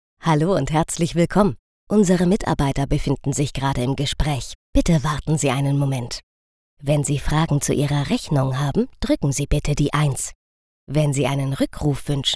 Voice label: telefonansage pur

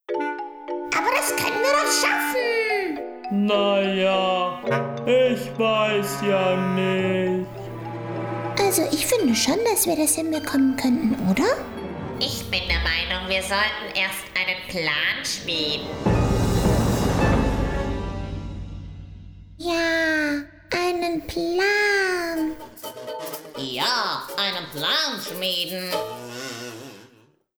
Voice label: trickstimmen

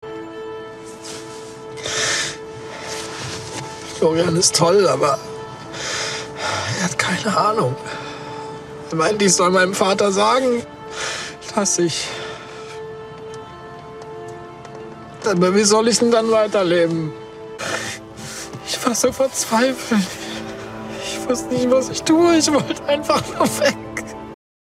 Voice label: Emotional

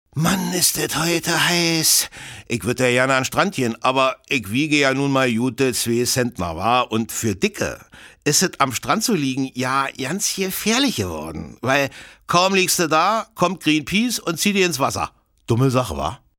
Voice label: dialekt

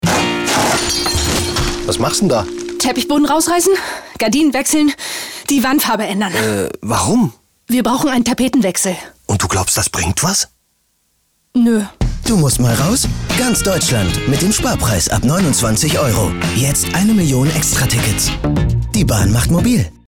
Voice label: radio spot; Deutsche Bahn

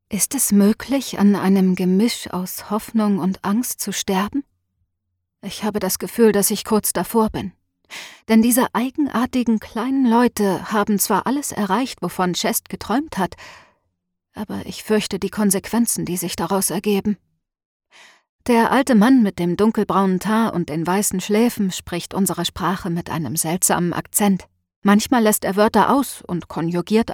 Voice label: Fantasy Ich Erzaehlerin; Fluch der Kraken

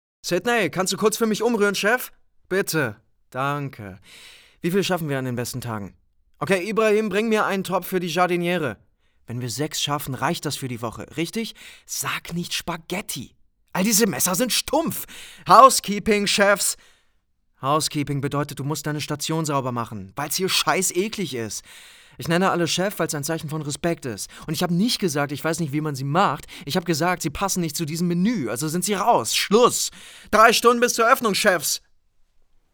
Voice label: Rolle Gestresster Chefkoch, German; Lip-Sync (Synchron)

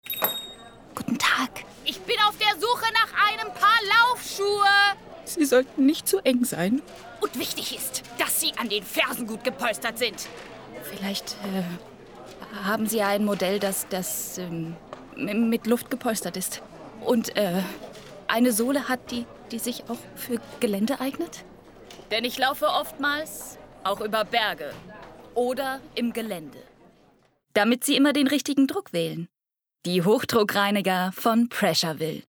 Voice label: Werbung Emotionen; LOFT VOICES

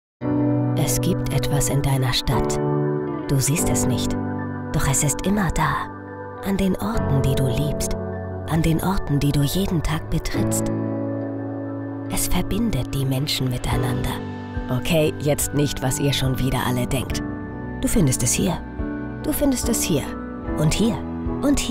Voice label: Imagefilm; DOKOM21

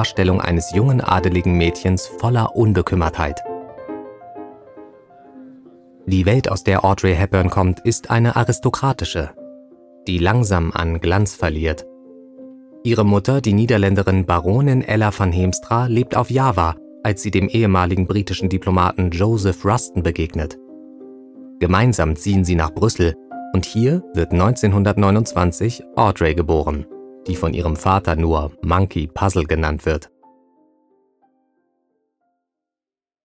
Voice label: TV Doku Schauspielerin; LOFT VOICES

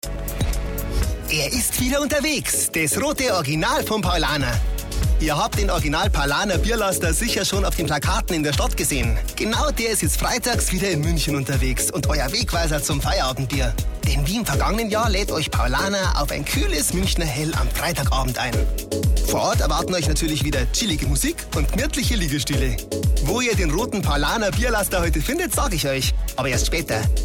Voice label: bayrisch; paulaner

